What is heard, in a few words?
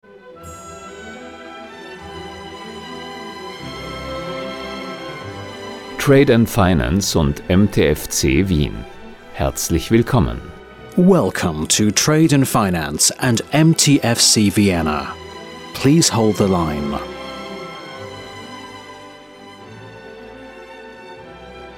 Eng Male